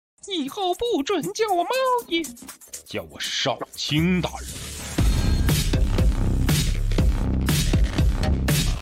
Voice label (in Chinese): 抖音系短视频爆款声